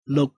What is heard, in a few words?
cantonese, numbers